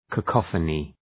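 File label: "cacophony", English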